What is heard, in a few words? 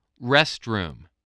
"restroom", English